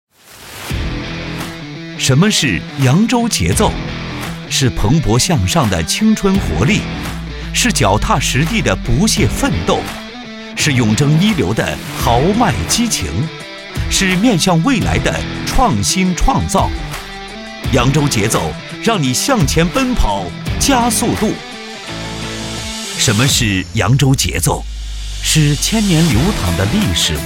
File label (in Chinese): 男 宣传片 青春活力 节奏; 扬州